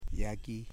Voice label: "fish", Foe